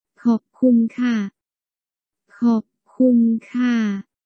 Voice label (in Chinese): 謝謝 女生